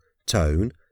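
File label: "tone", English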